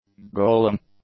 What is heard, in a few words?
"galling", English; adjectives